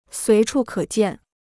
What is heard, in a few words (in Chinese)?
"随处可见", Chinese